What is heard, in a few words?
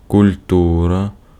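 Ukrainian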